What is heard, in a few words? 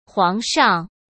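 "皇上", Mandarin Chinese; hsk; chinese-flashcards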